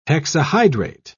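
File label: "hexahydrate", English, British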